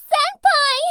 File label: anime girl senpai